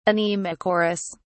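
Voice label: "anemochorous", British English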